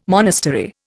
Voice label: "monastery", Indian

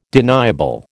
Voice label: "deniable", American

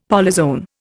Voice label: "polyzoan", Indian; Dictionary

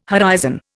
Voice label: "horizon", Indian

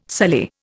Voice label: "sully", Indian

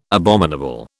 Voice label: "abominable", British

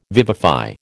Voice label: "vivify", American

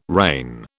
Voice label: "rein", British

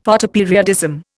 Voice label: "Photoperiodism", Indian; Dictionary

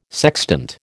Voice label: "sextant", American

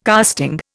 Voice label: "casting", Indian

